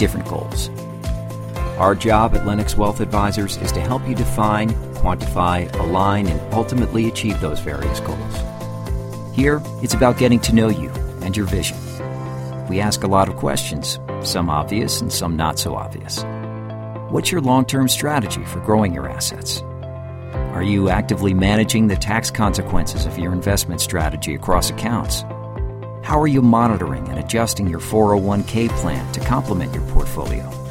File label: Voice Over E Learning Financial